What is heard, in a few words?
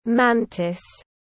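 "mantis", English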